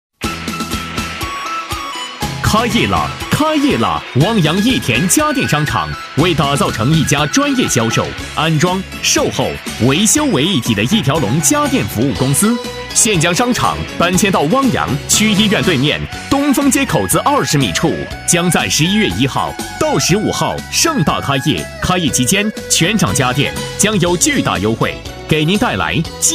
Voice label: 男, 促销

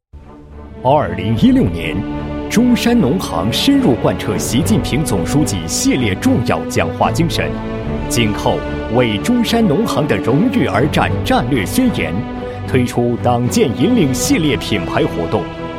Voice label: 男 企业宣传 宣传简介; 中山古镇支行

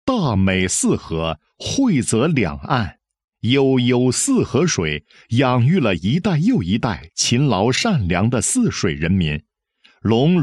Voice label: 男 工程 精细化管理 标准化施工创建“标准化”工地, 配音案例

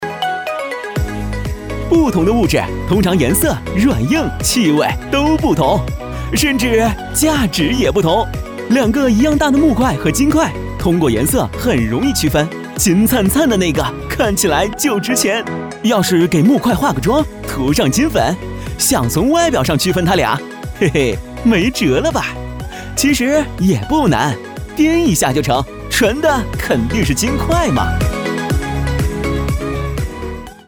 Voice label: 男 密度; 飞碟说

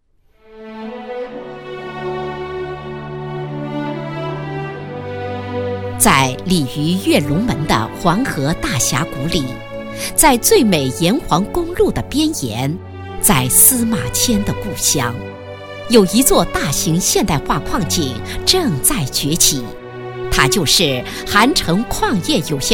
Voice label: 女 专题 企业宣传片 矿业公司宣传简介

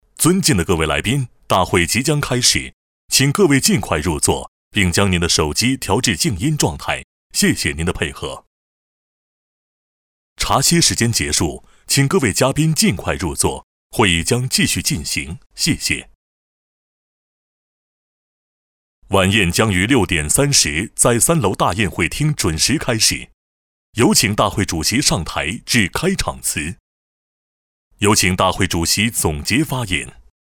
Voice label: 男 旁白 大会旁白用OS 旁白正式

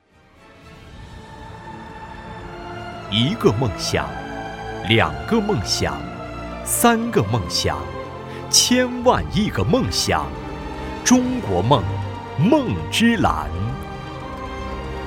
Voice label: 男, 宣传片; 中国梦-梦之蓝宣传

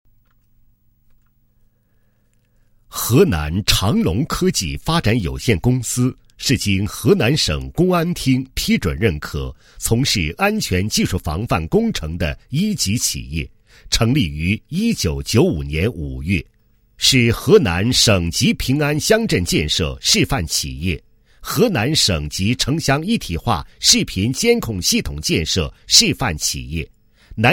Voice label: 男 企业, 公司简介; 长龙科技发展有限公司

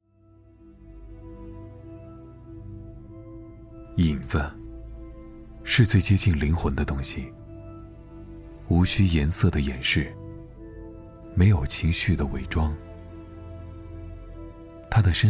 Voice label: 男 广告 温情暖声; 三星盖乐世

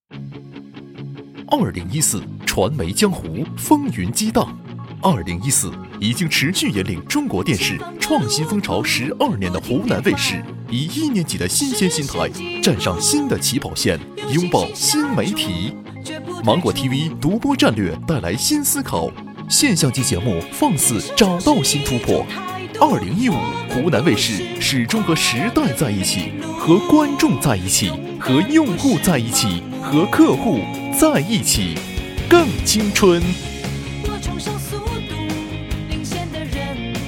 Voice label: 男 广告 时尚 轻快 招商片

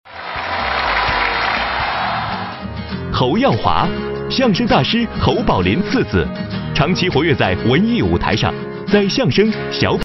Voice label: 男 片花; 山西卫视; 你贵姓

